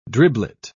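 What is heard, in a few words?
"driblet", British English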